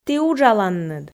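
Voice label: "ті уджаланныд", Komi, female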